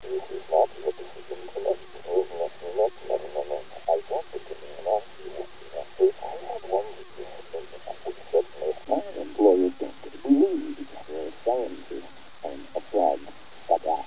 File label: mumble